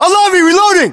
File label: US inform reloading, battlechatter, english